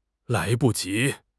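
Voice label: "lai2 bu5 ji2", Mandarin Chinese; HSK4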